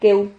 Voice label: "queu", Silabas

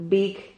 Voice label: "big", Silabas

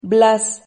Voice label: "blas", Silabas

